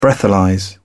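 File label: "breathalyse", British English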